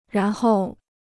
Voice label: "然后", Chinese